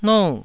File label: "nou4", Taiwanese